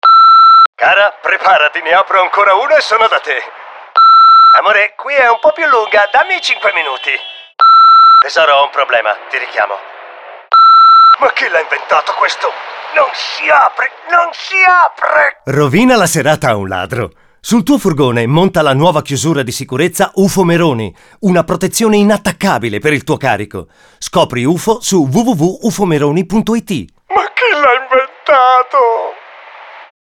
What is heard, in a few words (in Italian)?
spot